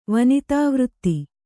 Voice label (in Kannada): "ವನಿತಾವೃತ್ತಿ", Kannada